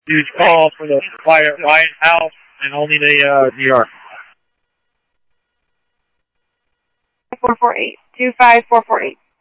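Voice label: police radio dispatch, Scottsdale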